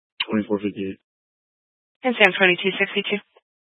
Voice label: Sheriff Dispatch, Scanner audio recording archive

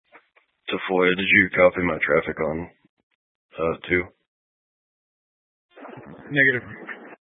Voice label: Sheriff Dispatch, scanner; Mesa County

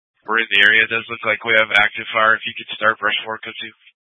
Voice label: Grand Junction Fire Department Dispatch

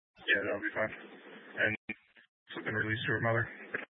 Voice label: Mesa County Sheriff Dispatch

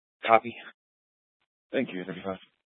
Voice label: Police Department Dispatch, scanner

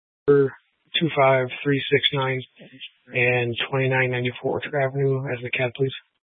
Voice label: Sheriff Dispatch, scanner

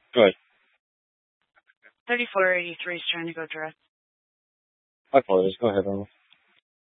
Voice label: Police Dispatch, scanner; Police 1 Dispatch

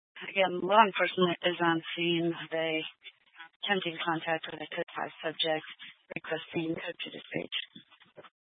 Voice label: Fire Department Dispatch, scanner; Grand Junction

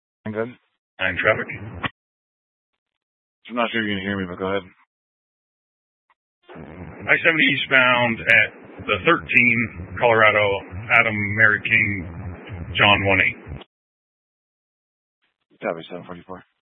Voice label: Mesa County Sheriff Dispatch; scanner

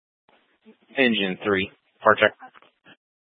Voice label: Fire Dispatch, Scanner audio recording

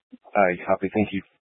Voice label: Police Department Dispatch; Grand Junction

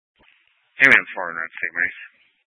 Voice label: Fire Dispatch, Scanner audio recording

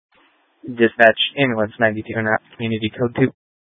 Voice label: Fire Dispatch

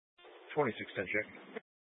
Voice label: Sheriff Dispatch, scanner; Mesa County

